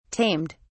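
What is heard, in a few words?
"tamed", British English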